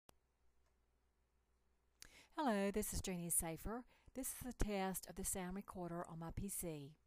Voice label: sound recorder message